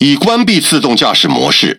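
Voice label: Speech Autopilot Disabled, Taiwan; World Of Warships; ZH TW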